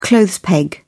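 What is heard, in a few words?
"clothes peg", British English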